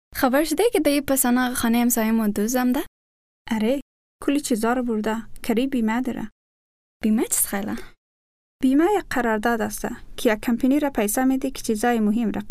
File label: insurance hazaragi